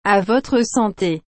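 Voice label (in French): "À votre santé", French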